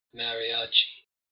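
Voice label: "mariachi", US English; pronunciations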